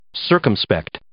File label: "circumspect", English